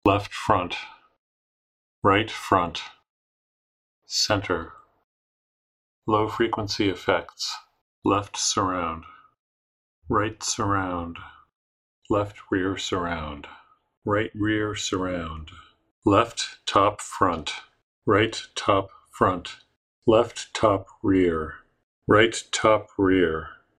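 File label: Surround Channel Test; dolby near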